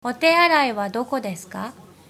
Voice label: toilet, japanese